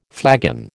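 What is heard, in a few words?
"flagon", American English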